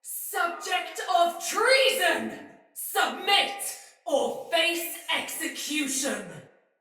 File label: See Player Dist Sinecure Soldier Female; Battle; Barks; Dialog